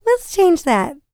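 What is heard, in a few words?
licks, female spoken; ILIO; Vocal Planet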